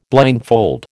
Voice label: "blindfold", American